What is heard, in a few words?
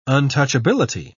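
"untouchability", British English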